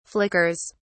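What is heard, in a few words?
"flickers", British English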